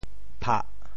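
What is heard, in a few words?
"phah4", Teochew; 潮州; 拍bong2泅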